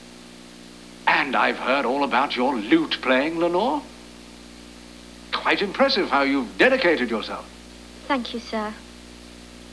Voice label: talkative daughter